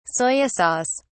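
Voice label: "soya sauce", English, British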